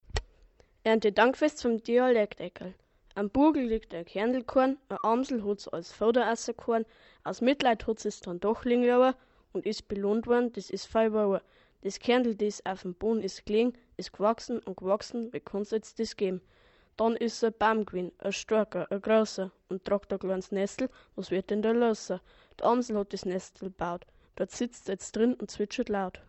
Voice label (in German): Dialekt; Erntedank